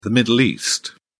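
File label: "middle east", British English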